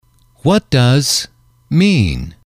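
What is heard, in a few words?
"what does X mean", English, male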